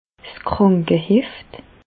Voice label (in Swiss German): "krankeheft", Bas Rhin; medecine; carnet de santé